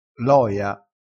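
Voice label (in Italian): "loia", crespinese dialettale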